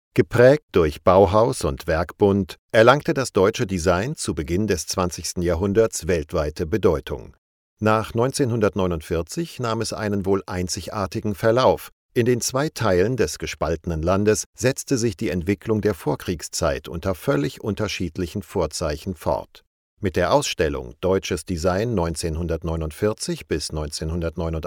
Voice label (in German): Dokumentation